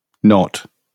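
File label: "not", British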